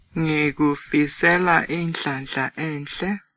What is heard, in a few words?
good luck ndebele